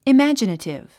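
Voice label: "imaginative", English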